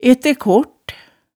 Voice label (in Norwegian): "ettekort", hemsedalsmaalet